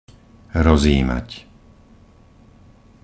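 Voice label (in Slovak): "rozjímať", Slovak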